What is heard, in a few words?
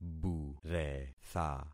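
"buretha", concatenated syllables; Affixing experiment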